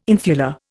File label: "infula", Indian